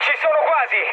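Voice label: Italian near waypoint mood med, speech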